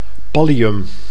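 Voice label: "pallium", Dutch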